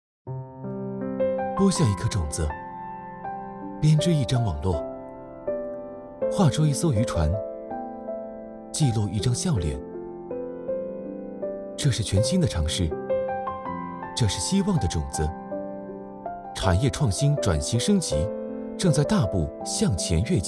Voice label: 年轻 温柔; 温岭

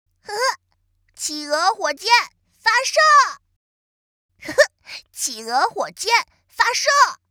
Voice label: 男童尖细男童角色

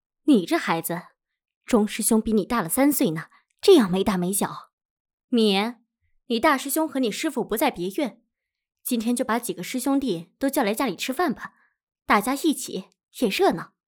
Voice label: 大妈音

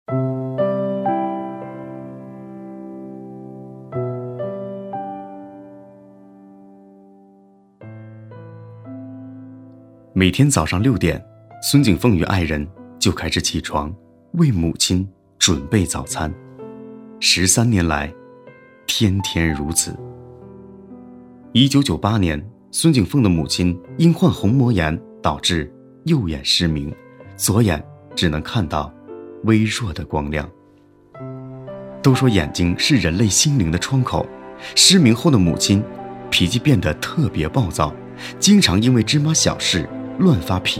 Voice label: 企业员工解说

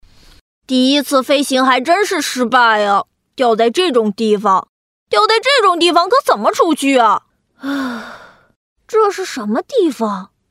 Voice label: 角色男童活泼; 【角色】男童活泼动画

